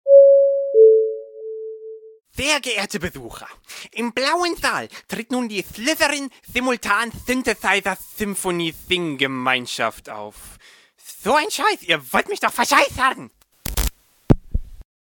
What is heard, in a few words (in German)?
durchsage